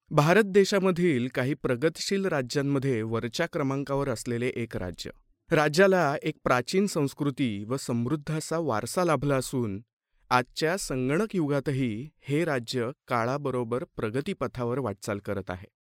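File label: Marathi India male narrative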